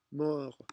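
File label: "mort", French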